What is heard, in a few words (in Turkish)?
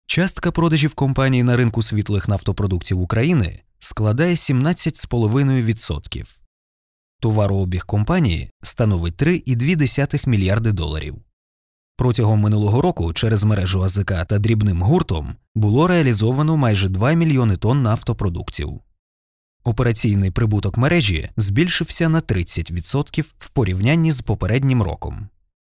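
Ukraynaca Erkek, Ukrainian male voice; ukraynaca seslendirme; erkek sesler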